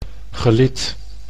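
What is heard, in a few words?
"gelid", Dutch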